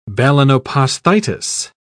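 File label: "balanoposthitis", British English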